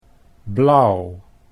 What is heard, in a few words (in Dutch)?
"blauw", Dutch